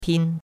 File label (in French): "pin1", chinois; Cours-de-Chinois